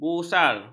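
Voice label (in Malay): "busar", Malay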